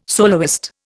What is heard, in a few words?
"soloist", Indian